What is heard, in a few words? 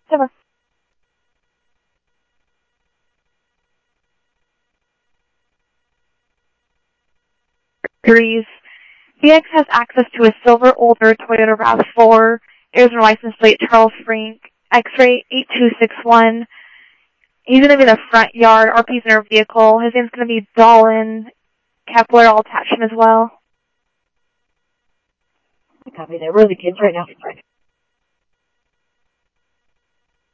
police, scanner audio